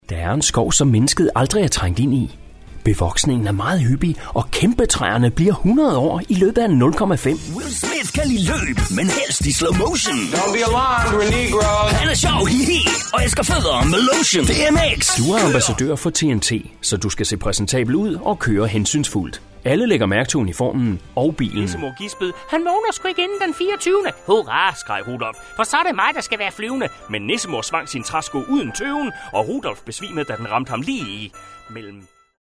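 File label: Danish male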